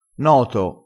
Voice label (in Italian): "noto", dialettale